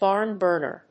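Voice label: "Barnburner", English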